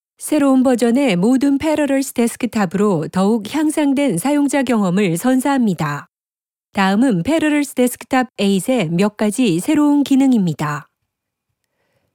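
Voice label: Korean elearning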